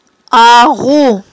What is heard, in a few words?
"агъу", aghul